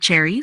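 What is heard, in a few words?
"Cherry", pronunciation; fruits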